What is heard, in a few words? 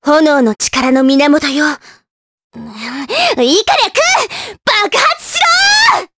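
Vox Skill, Japanese; kingsraid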